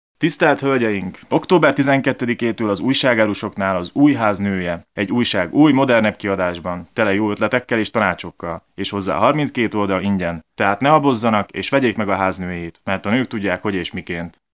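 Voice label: M HU commercial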